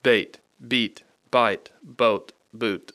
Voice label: bait beet bite boat boot, English, Rapid Sound Practice; Speech Pro